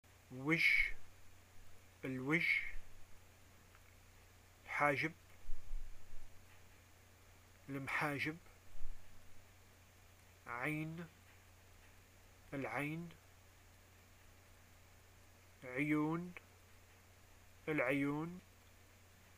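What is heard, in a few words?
face parts, arabic, Algerian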